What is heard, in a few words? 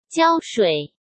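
"胶水", Mandarin Chinese; hsk; chinese-flashcards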